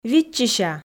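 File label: "виччыся", Komi, female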